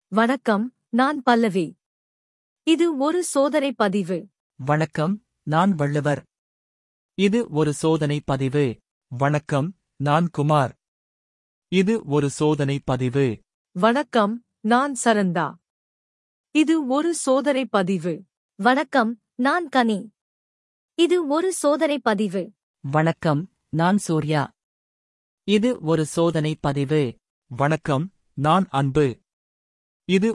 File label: Tamil Edge Voices